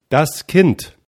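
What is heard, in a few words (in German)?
"kind", German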